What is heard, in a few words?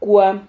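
"qua", Spanish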